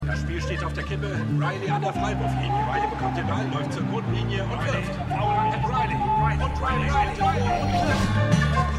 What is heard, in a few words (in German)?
Spielkommentator; Winning Time